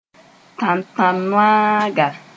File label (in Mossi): "tãntãnnoaaga", moore; partridge